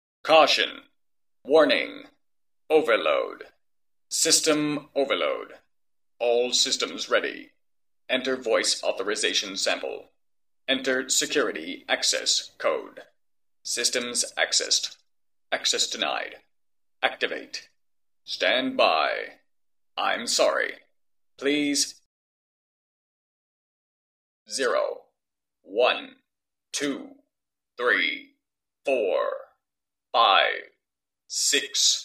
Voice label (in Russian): sistema preduprezhdeniya muzhskoj golos chislovoj otschet